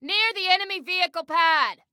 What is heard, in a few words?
Warn Enemy Near Enemy Vehicle Pad, Female